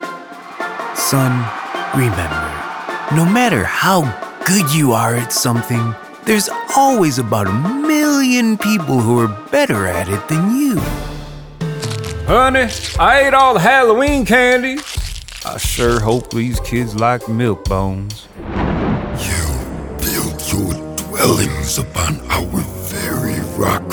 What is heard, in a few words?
animation